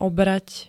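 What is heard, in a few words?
"obrať", Slovak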